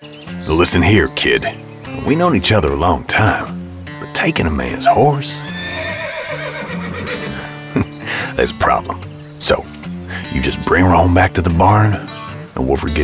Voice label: Video Game Cowboy